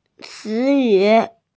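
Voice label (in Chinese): "ci2yu3", Mandarin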